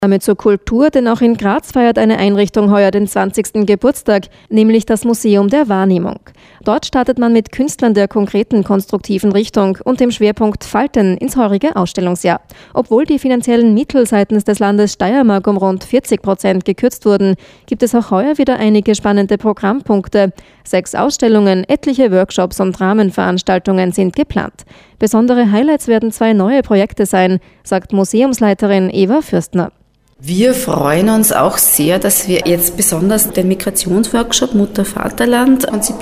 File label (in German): Radio Soundportal